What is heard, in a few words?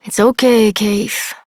Horse Calm Adult; Duviri